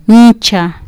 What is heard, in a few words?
"ncha", Kiswahili